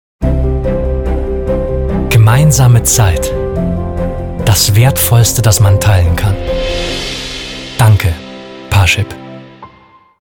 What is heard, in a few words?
TV Spot; Gemeinsame Zeit